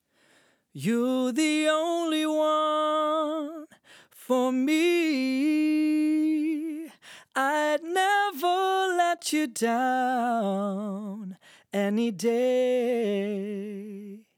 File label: Vocals EQ Compressor; Vocal-Aufnahmen bearbeiten und mischen